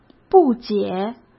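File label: "不解", Mandarin Chinese; hsk; chinese-flashcards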